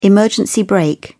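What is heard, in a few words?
"emergency brake", British English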